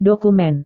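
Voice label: "dokumen", Indonesian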